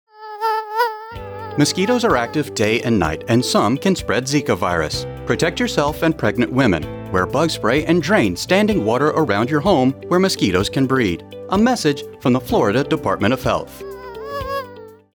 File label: Zika radio ad; mosquitoes zika